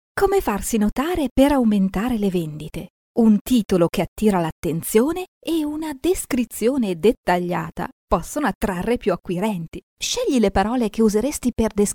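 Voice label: Italian female voice over, upbeat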